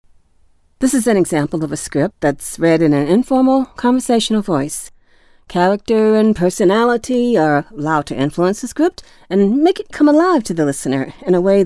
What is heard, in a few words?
Natural Speak